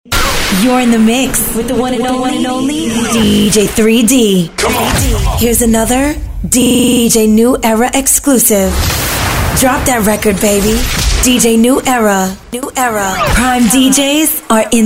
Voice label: DJ DROP